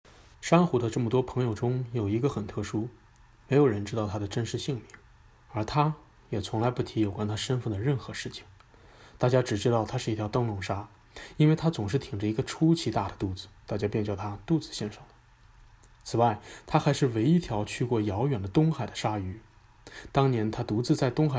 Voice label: Mandarin